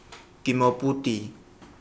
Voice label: mouse, Kapingamarangi